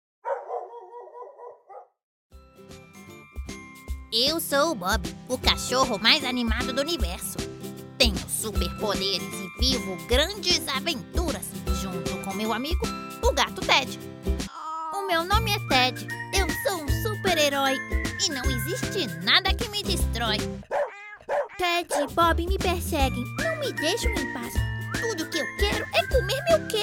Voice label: portuguese brazilian animation

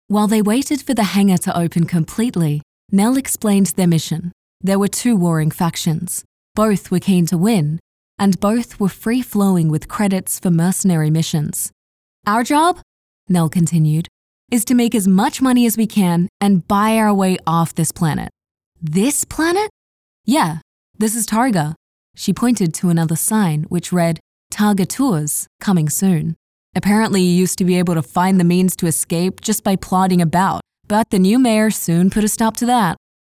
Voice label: english australian audiobooks

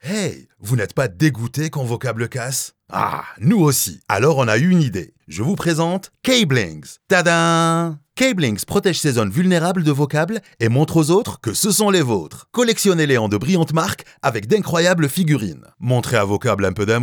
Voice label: french commercial

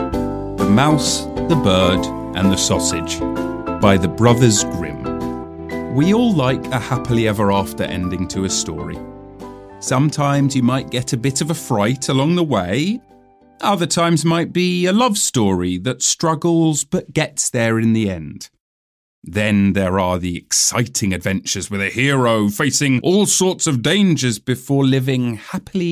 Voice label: English British narration

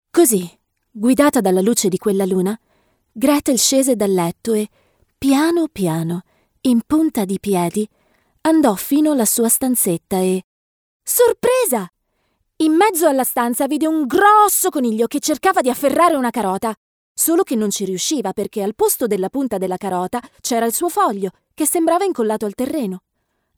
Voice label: italian narration

